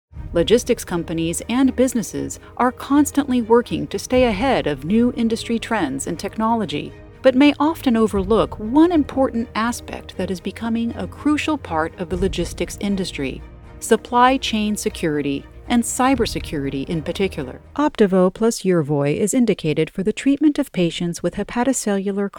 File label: Narration